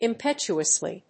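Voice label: "impetuously", English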